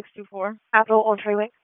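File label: Police North District Disp, radio; Raleigh; wake; p25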